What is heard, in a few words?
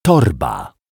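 "torba", Polish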